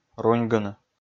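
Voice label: "руньгыны", Komi, male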